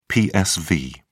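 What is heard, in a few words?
"psv", British English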